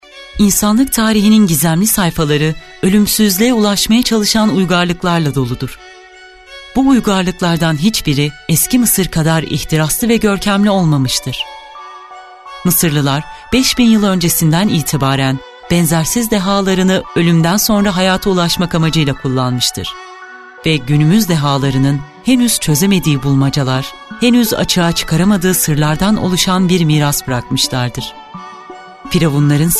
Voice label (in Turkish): belgesel